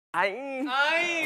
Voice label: Aegyo

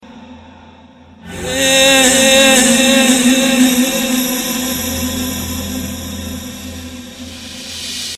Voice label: Heyy Echo

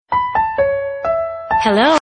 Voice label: New Nokia Girl Sms

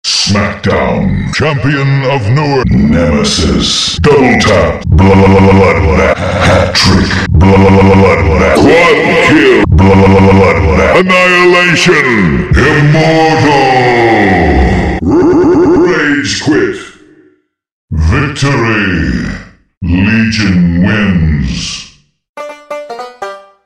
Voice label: Announcer

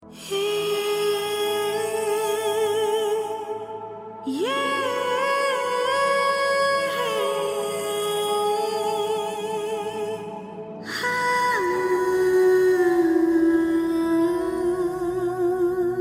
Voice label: Lady Voice

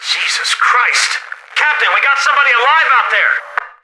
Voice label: Soldier Radio; L4d

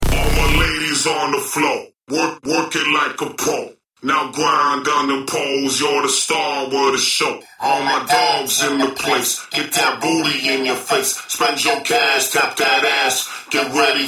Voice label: Electro Speak

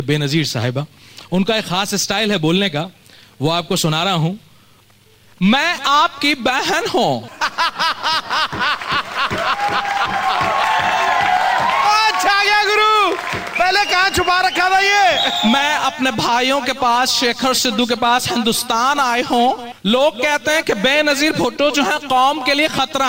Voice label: Funny; Benzeer Bhutto

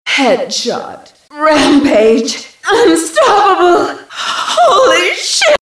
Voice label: Counter Female

